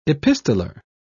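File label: "epistoler", British English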